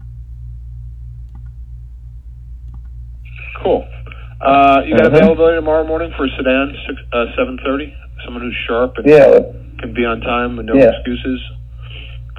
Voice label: Call Recording; CVG Missed pickup